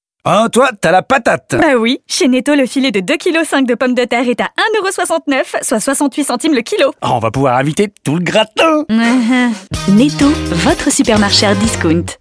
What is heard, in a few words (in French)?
RADIO comedie humour; NETTO